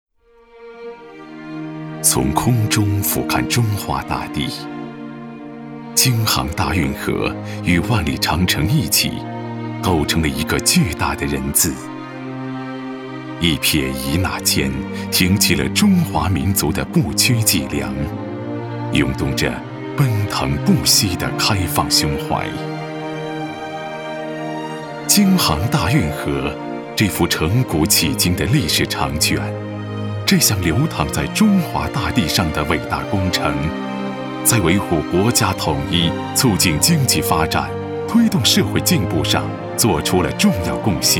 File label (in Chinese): 男 纪录片 政府